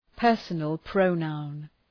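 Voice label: "personal pronoun", English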